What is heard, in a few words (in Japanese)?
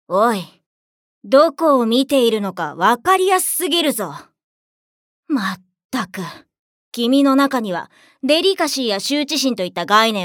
理系少女